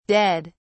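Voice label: "ded", British English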